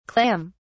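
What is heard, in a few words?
"clam(s)", British English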